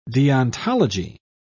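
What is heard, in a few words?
"deontology", British English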